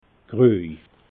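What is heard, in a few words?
"groi", Alsatian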